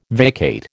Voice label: "vacate", American